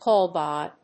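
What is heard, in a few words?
"call by", English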